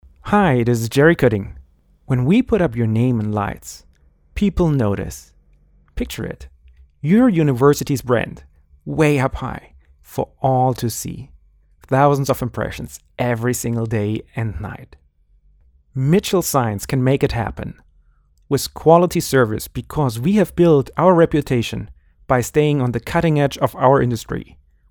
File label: Industrials; Name in Lights